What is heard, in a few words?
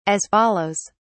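"as follows", British English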